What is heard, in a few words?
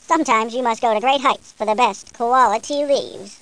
koala talk; firefly3; austrlia